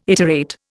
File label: "iterate", Indian